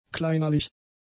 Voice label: "kleinlich", German; adjectives